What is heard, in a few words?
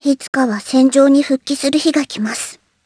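voice, Japanese; Hanus; kingsraid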